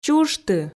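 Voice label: "чужты" Komi, female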